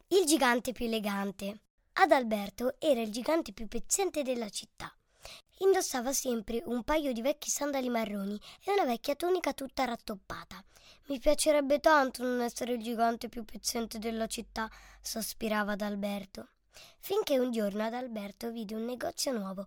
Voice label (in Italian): lettura, voce di una bambina